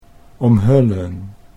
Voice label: "omhullen", Dutch

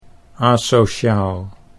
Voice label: "asociaal", Dutch

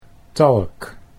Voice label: "talk", Dutch

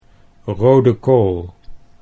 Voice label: rode kool, Dutch

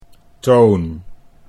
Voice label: "toon", Dutch

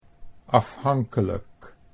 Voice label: "afhankelijk", Dutch

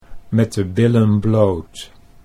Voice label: "met de billen bloot", Dutch

